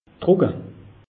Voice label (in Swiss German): "trucke", alsace; meteo